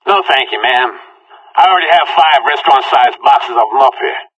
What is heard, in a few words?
conversation; Left 4 Dead 2; Source Engine; video games; npc; whitaker